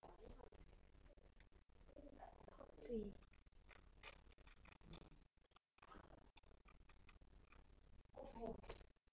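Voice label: "puppy", spoken word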